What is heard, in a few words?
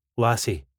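"lassie", Scottish English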